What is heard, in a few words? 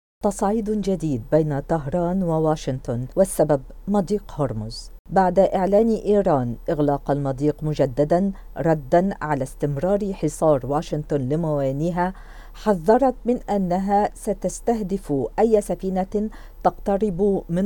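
Arabic, female; HORMUZE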